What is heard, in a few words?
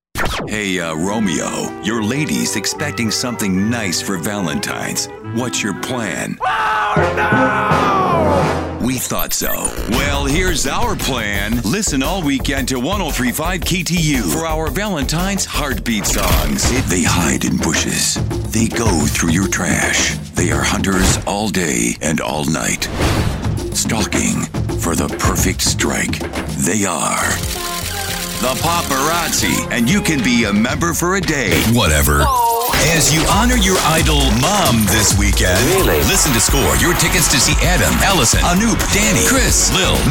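radio imaging men contemporary hit radio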